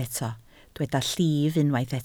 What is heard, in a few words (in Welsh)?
"llif", Welsh